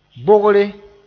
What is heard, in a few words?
"bogre", moore; part